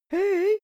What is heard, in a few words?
boy shy "hey"